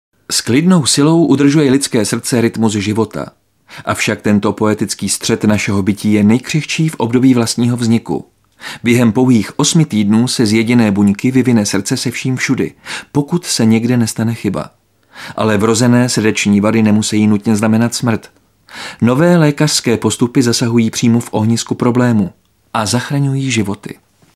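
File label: male Czech documentary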